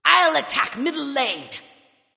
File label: vox self attack; smite; arachne